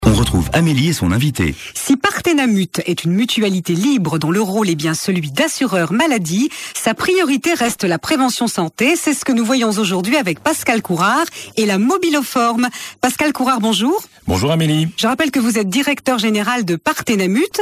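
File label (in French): spot, spots radio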